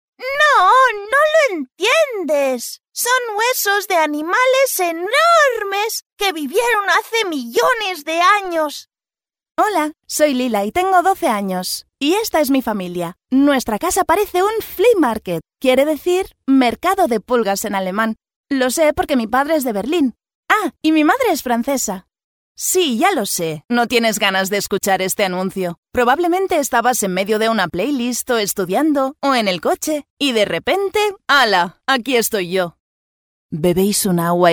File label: spanish animation